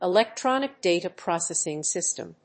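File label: "ELECTRONIC DATA PROCESSING SYSTEM", English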